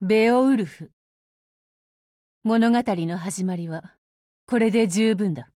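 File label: gain, Japanese; 少女前线:贝奥武夫; Beowulf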